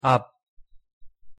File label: "앞", pronunciation ko